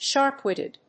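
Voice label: "sharp-witted", English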